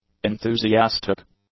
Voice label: "enthusiastic", English; adjectives

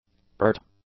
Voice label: "pert", english; adjectives

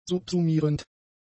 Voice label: "subsumierend", German; adjectives

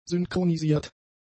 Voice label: "synchronisiert", German; adjectives

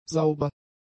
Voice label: "sauber", german; adjectives

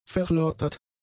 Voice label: "verlottert", German; adjectives

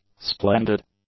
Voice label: "splendid", english; adjectives

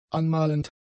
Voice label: "anmalend", German; adjectives

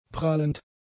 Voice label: "prallend", German; adjectives

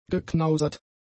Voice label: "geknausert", German; adjectives

